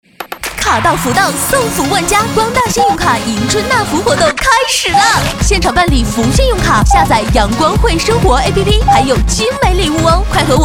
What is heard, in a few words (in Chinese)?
女 广告 年轻欢快; 送福万家